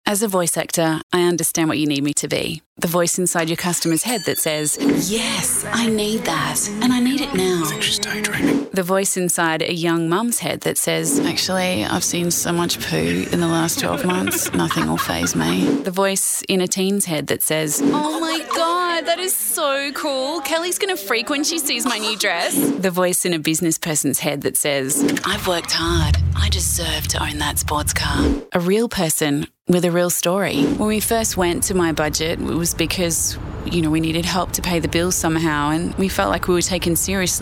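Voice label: English Australian, female, narration; voice demos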